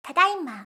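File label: "tadaima", Japanese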